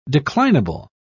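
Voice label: "declinable", British English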